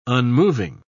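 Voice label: "unmoving", British English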